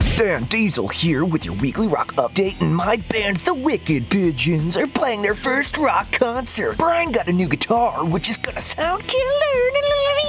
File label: Character